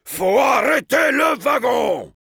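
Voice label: cart moving forward defense, French; Heavy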